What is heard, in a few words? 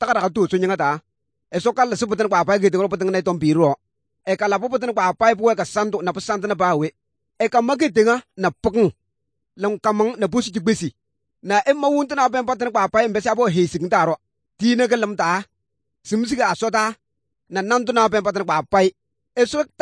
good news, kabiye bible